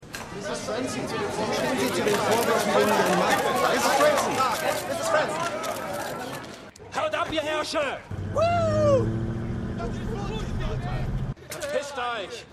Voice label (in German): Reporter Menge Bewohner; Bosch